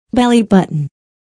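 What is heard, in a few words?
"bellybutton", English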